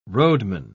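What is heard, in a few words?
"roadman", British English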